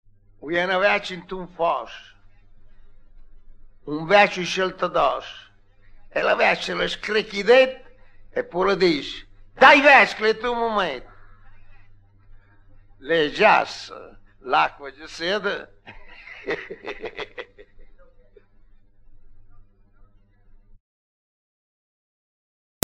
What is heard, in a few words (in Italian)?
indovinello, romagna